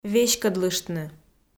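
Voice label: "веськӧдлыштны", Komi, female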